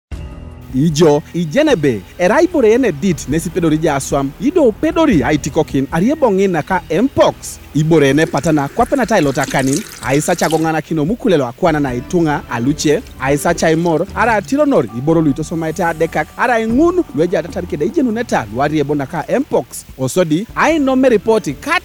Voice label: Narrator ATESO, radio spots; MPOX; The MPOX Campaign Safe Circles

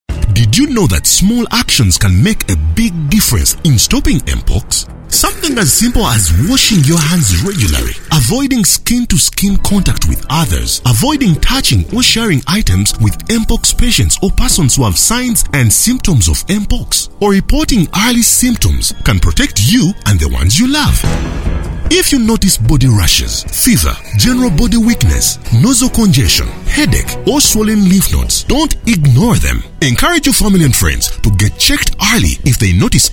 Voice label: Narrator English, radio spots; MPOX; The MPOX Campaign Safe Circles

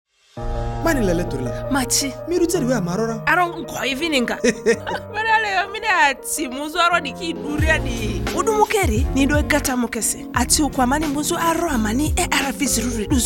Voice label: Time Up HIV Spot DSD Lugbara; radio spot

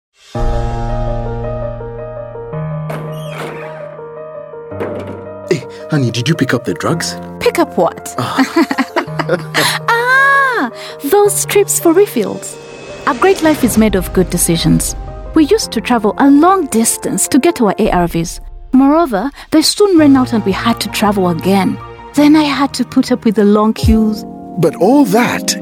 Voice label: HIV Spot English, radio spot

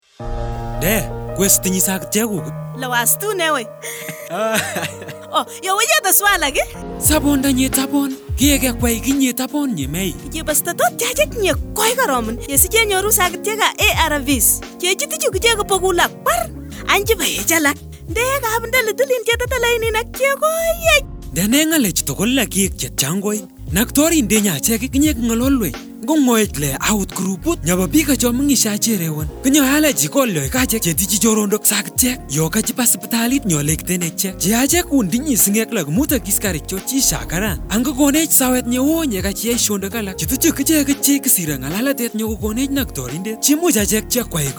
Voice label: HIV Spot Kupsabiny, radio spot

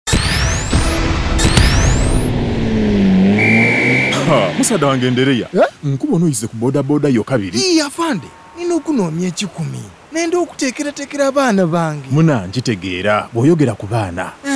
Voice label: LUSOGA; adolescent health campaign radio spots; BOLD; Identity

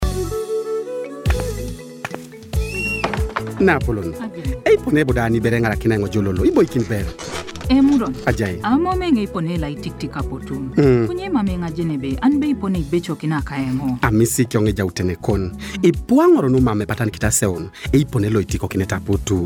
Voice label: Health Worker Spot Ateso; IUD

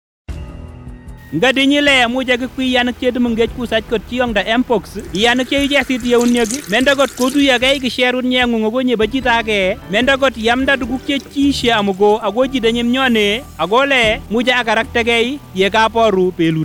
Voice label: Narrator KUPSABINY, radio spots; MPOX; The MPOX Campaign Safe Circles

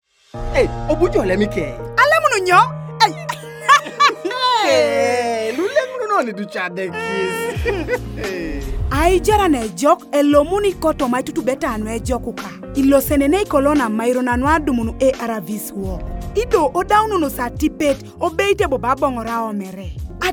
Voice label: Time Up HIV Spot DSD Ateso; radio spot

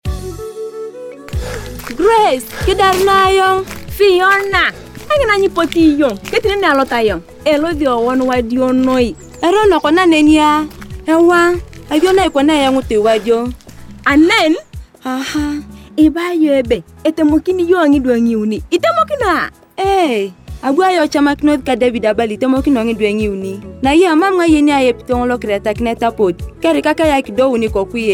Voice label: IUD Radio Spot Karamajong

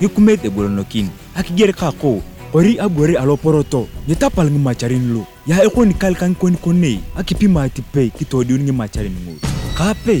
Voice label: MPOX Narrator; KJONG; radio spots; The MPOX Campaign Safe Circles

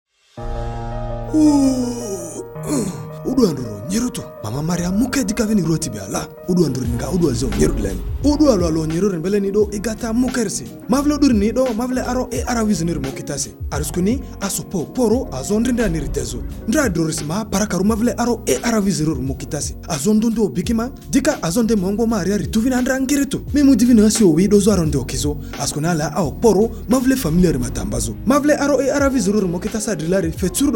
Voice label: HIV Spot Lugbara; Viral Load Suppression; radio spot

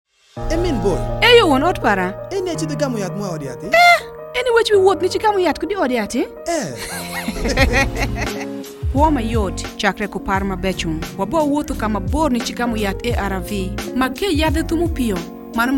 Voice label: HIV Spot Alur, radio spot

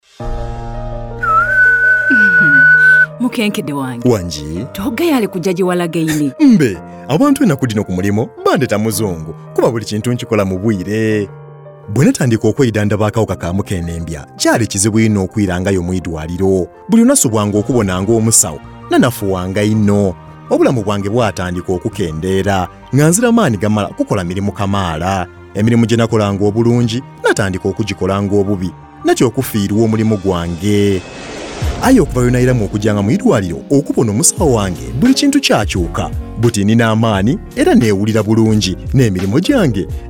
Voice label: Time Up HIV Spot ART Adherence Challenges Lusoga; radio spot

